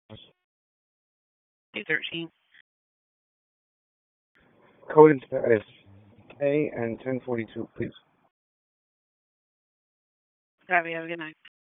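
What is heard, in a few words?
Raleigh Police Northeast District Dispatch, p25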